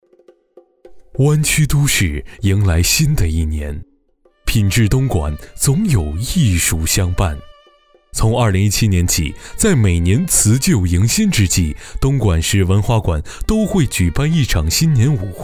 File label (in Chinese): 新年舞会宣传片; 春之圆舞曲东莞新年舞会